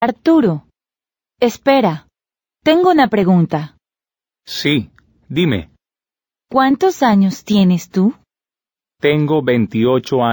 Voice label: Dialogue, Spanish